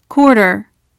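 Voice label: "Quarter", American English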